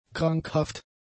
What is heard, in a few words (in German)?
"krankhaft", German; adjectives